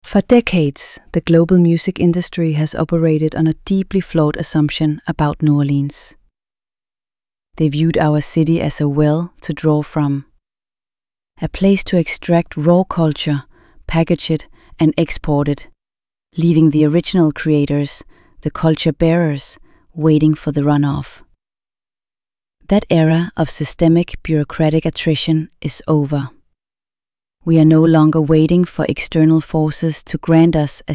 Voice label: voiceover, infomercial; atomic instruments